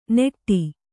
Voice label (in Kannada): "ನೆಟ್ಟಿ", Kannada